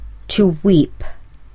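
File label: "to weep", English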